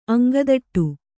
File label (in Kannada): "ಅಂಗದಟ್ಟು", Kannada